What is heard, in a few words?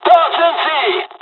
dialog, dialogs german; War Thunder; midway